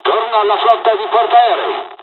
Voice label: first wave flyaway, dialogs italian; War Thunder

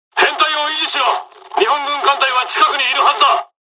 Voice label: dialog, dialogs japanese; us midway; War Thunder

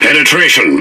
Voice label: Speech Hit Confirmation, EN GB; World Of Warships

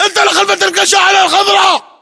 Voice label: order cover truck green, battle chatter, english

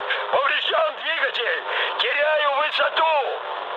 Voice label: damaged engine, mood high, speech ru; War Thunder

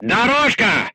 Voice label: voice message drive slowly, crew tanks russian

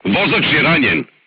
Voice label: voice message driver wounded, serbian crew tanks

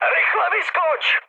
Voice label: bailout, speech cze, mood high; War Thunder

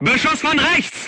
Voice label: voice message shot right, crew tanks german

